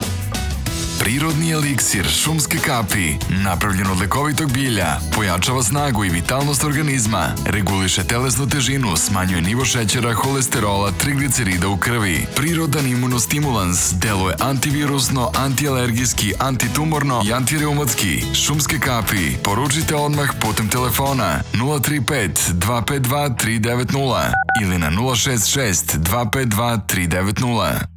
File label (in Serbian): radio reklama radio; Signal